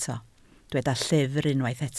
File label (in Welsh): "llyfr", Welsh